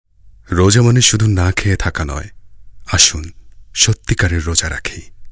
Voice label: Fasting Ad

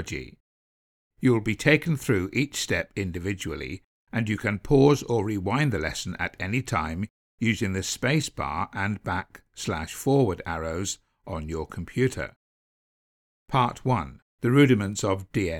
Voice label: E-learning

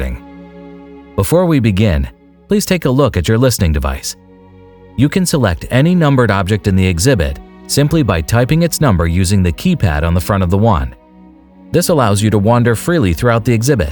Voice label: Audio Tour

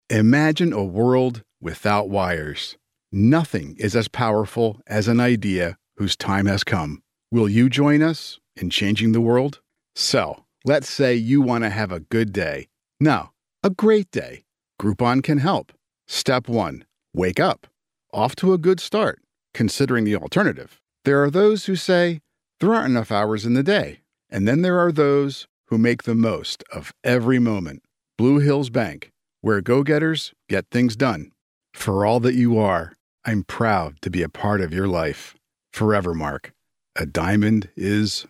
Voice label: Commercial Dry

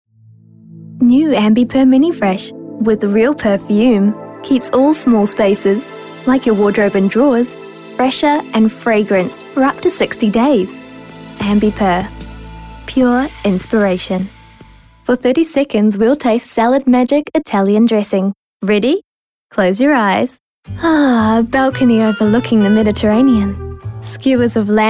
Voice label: NZ Commercial

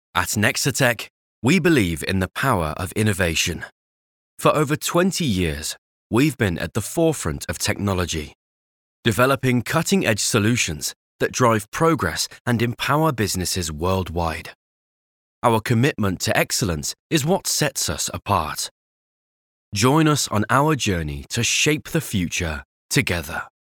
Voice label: Corporate VO British

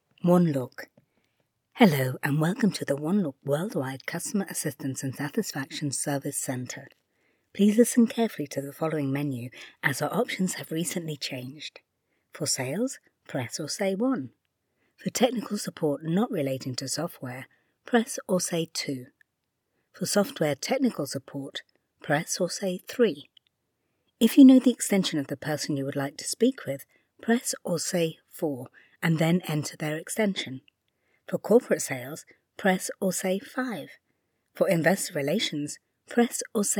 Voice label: telephony; One Look

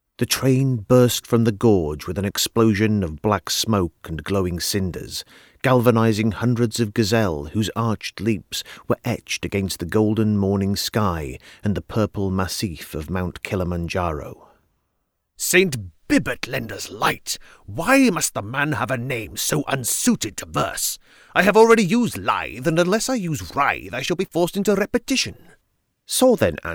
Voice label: audiobook

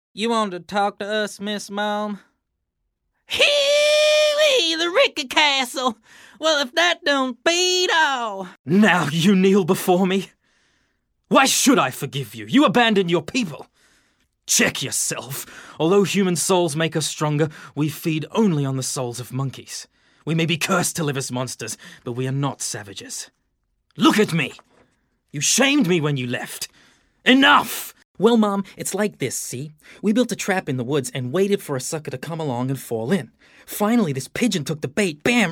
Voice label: Character VO

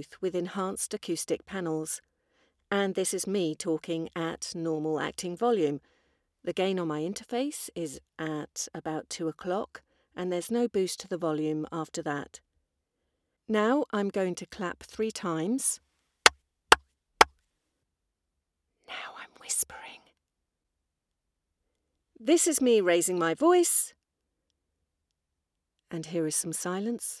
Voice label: Studio sound

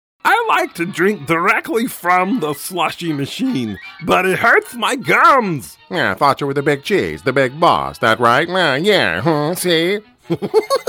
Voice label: Animation

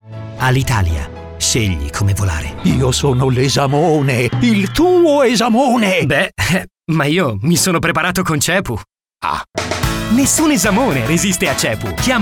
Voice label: National Commercial mix

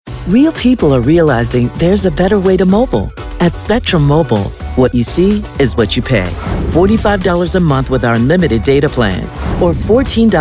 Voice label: vo commercial; English (North American)

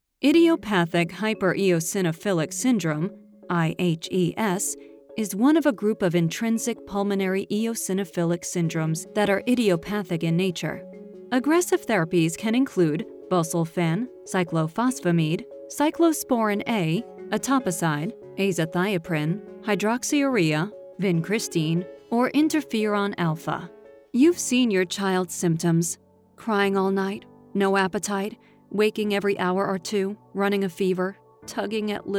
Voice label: medical Narration

